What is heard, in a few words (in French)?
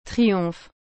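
"triomphe", French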